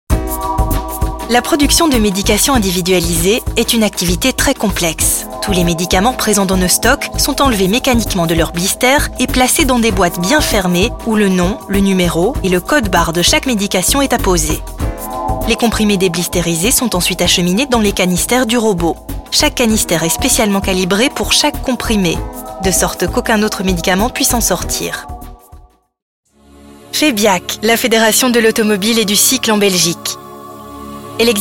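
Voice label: French, female, corporate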